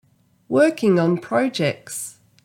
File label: Working on projects, en au; garden